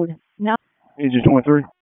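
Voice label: Fire Department HQ Dispatch; RFD; p25; wake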